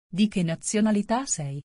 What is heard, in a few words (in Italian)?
domanda informale